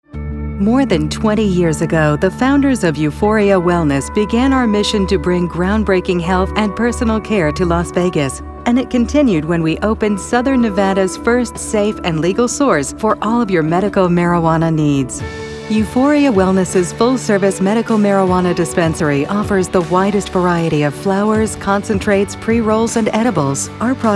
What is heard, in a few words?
Radio Spot; Euphoria Wellness Las Vegas